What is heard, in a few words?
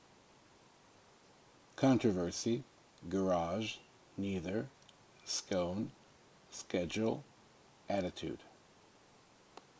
herzliyya israel new york city male